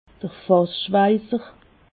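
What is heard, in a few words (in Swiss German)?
"fassschweiser", Haut Rhin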